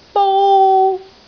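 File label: "bou1", Cantonese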